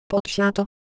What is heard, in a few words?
Japanese Shape, ideophones